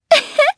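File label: Vox Happy, Japanese; Shamilla; kings raid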